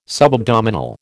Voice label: "subabdominal", American